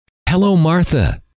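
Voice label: hello, male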